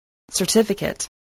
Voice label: "certificate", English, voice